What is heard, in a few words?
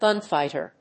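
"gunfighter", English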